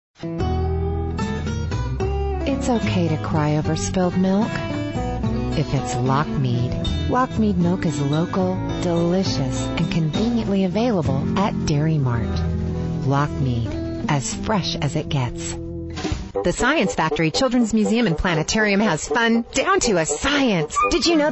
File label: Commercial Female, Commercial Voiceover; TV and Radio, Promo, Corporate; Narration, eLearning, Corporate, Documentary